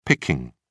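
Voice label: "picking", British English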